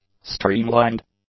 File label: "streamlined", English; adjectives